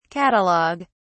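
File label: "catalog(ue)", British English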